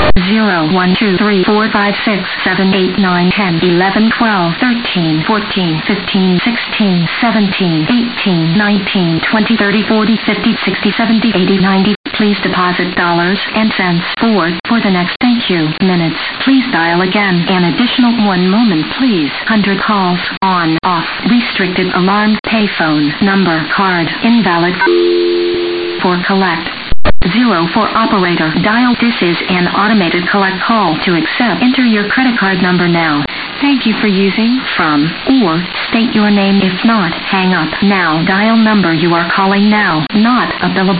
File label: voice en voice msg, payphones; elcotel; smart